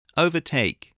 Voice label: "overtake", English voice

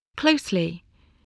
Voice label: "closely", voice

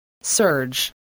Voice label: "surge", English

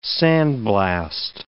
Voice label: "sandblast", English

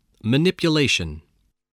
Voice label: "manipulation", spelling voice

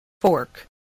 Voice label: "fork", English voice, spelling

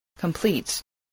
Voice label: "complete", english

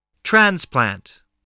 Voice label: "transplant", voice

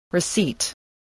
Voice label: "receipt", english

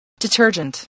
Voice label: "detergent", english spelling

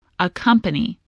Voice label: "accompany", English voice

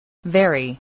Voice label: "very", English

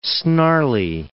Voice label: "snarly", English

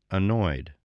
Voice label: "annoyed", English

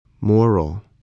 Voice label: "moral", voice, spelling

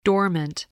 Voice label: "dormant", spelling

